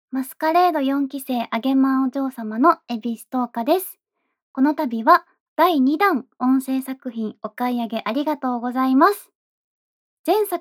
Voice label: free talk, ASMR; KU100; むっつりどスケベの推しと自宅でオフパコ ～とうかの全て、見てください～; SEあり